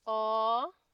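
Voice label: "or", Nigerian Pidgin, female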